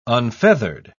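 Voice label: "unfeathered", English, uk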